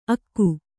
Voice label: "ಅಕ್ಕು", Kannada; akku

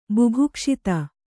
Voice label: "ಬುಭುಕ್ಷಿತ", kannada

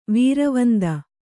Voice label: "ವೀರವಂದ", Kannada

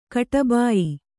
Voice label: "ಕಟಬಾಯಿ", Kannada

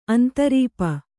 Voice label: "ಅಂತರೀಪ", Kannada

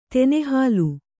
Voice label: "ತೆನೆಹುಲ್ಲು", Kannada

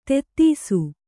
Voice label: "ಧೂತಿಸು", Kannada

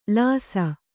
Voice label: "ಲೇಸು", Kannada

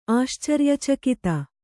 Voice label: "ಆಶ್ಚರ್ಯಚಕಿತ", Kannada

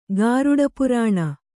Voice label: "ಗಾರುಡಪುರಾಣ", kannada

